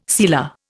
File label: "sealer", Indian